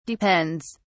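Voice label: "depends", British English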